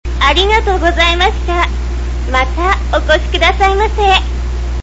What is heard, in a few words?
sample normal, voice